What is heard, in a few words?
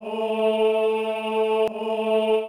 attn voice